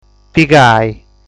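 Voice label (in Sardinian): "Ingolli", Sardinian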